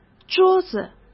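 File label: "桌子", Mandarin Chinese; hsk; chinese-flashcards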